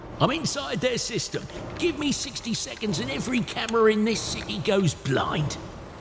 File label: Characters Voices Cyber Code Breaker